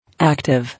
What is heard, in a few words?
"Active", English